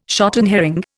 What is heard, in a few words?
"shotten herring", Indian